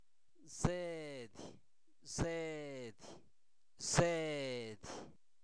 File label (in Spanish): Zapoteco Albarradas, santo domingo albarradas; alimentos; sal; INALI